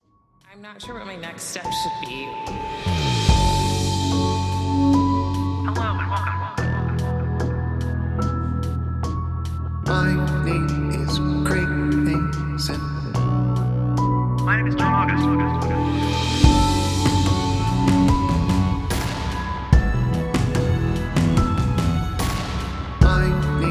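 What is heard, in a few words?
Outro Spoken with voices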